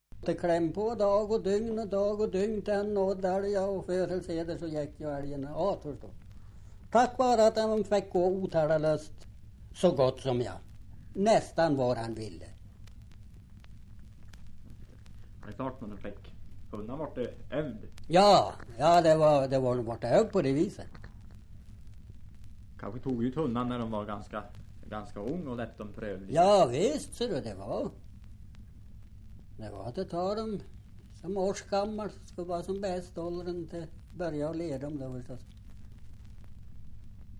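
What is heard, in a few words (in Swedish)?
Hotagen Jämtland, dialekter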